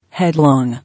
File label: "Headlong", English